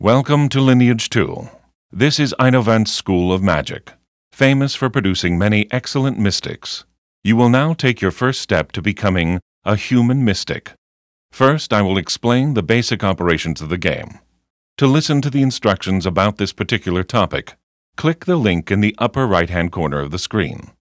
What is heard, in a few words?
tutorial voice; lineage2